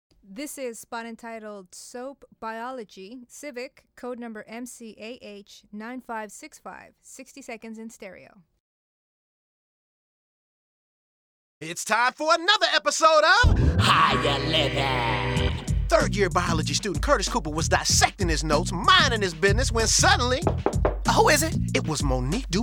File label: Voice Over